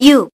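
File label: voice Korean, keytone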